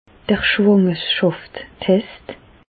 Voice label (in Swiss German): "schwangerschaftstest", Bas Rhin; medecine; test de grossesse